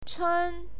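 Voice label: "chen", Mandarin Chinese, first tone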